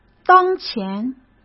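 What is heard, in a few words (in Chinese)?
"当前", Mandarin Chinese; hsk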